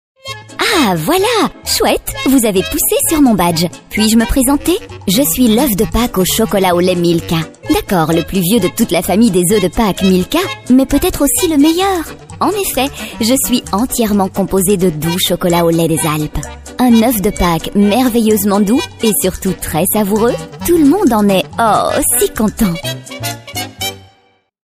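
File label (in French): french Belgian female commercial; voice demos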